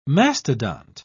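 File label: "mastodont", British English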